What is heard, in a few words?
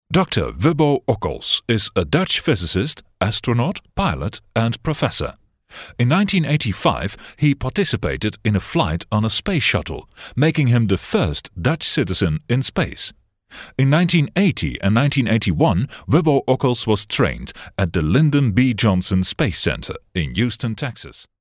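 Dutch, male, English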